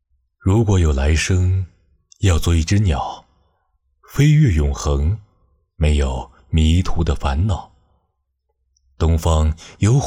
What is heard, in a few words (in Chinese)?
情感类